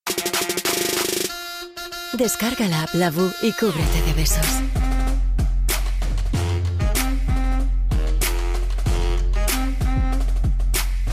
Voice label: commercial spanisch

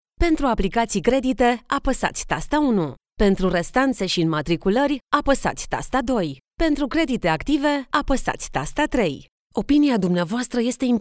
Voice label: IVR

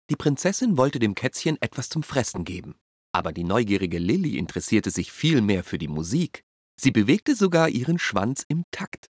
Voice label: Off Cute; LOFT VOICES

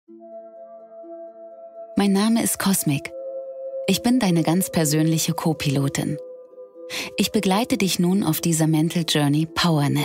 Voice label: Meditation

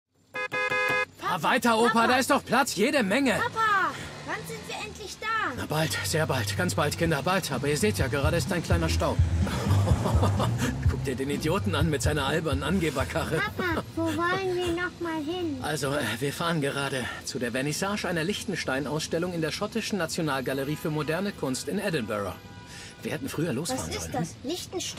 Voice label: Dubbing in the car; Bilder Allein zuhaus; LOFT VOICES

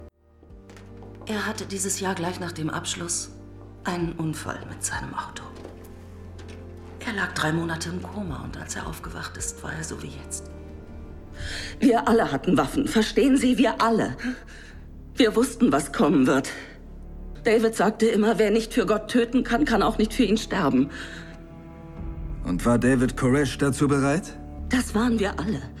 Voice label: emotional

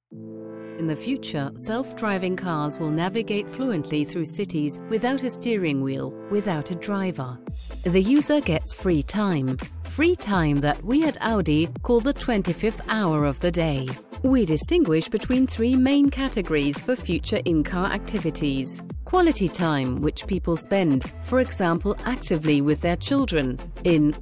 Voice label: EN Image; Audi; LOFT VOICES

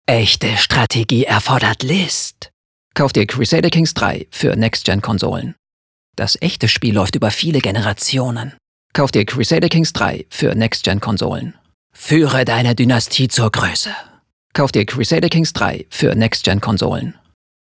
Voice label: voiceover dramatisch

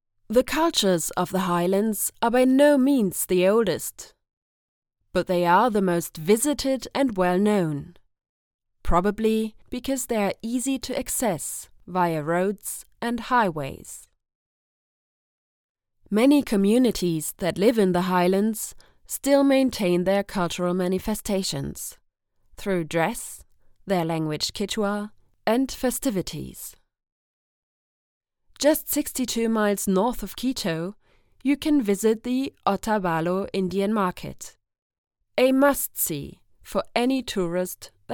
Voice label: DOCUMENTARY ENGLISCH; Ecuador

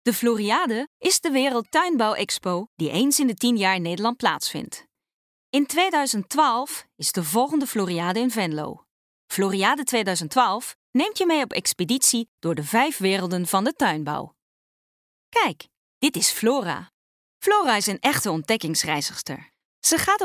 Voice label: nl; hamburg

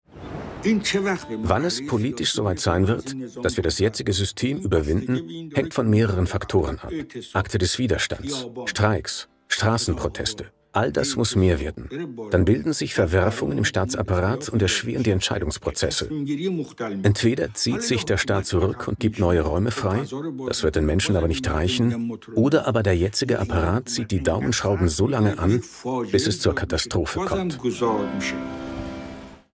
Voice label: Doku voice over

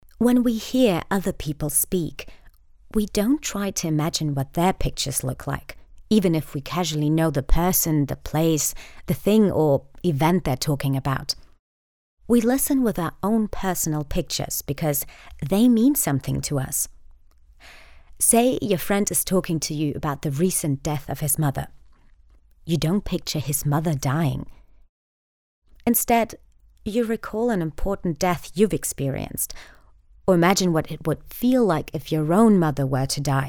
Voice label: trial british

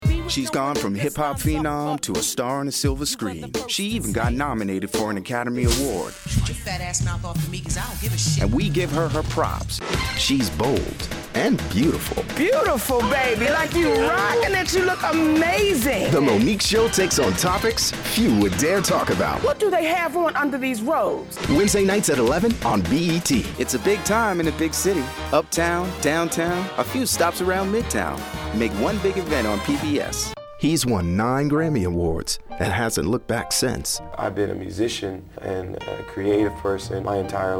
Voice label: voice over talent promo

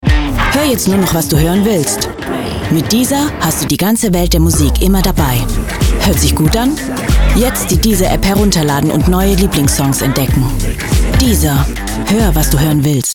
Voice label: Commercial, German; Commercial Deezer

